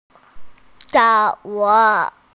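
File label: "dance", Mandarin Chinese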